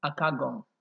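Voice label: "aka gum", Nigerian Pidgin, male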